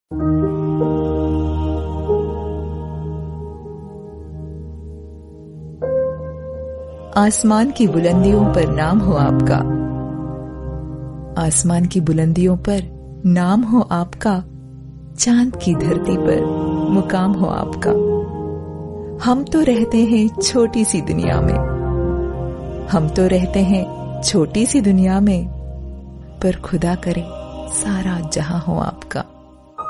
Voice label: HAPPY BIRTHDAY SHAYARI RINGTONE